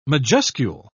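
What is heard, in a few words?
"majuscule", British English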